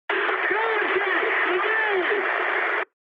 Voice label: eng chat, speech eng